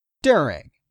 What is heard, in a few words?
"during", English; 3000 words